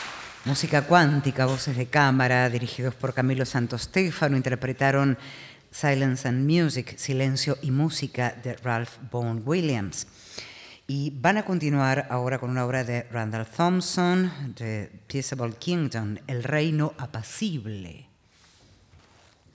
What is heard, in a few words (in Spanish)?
locutora